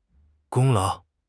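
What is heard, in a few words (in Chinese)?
"功劳", Chinese; HSK; HSK6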